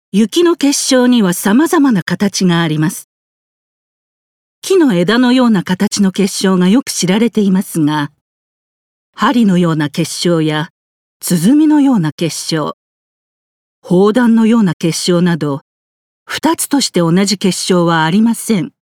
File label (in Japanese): 解説ナレ